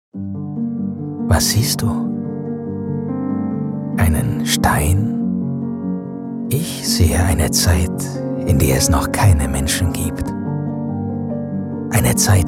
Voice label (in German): imagefilm; Zürich; Muttersprache Deutsch, Deutsch/Schweizerdeutsch; zeitreise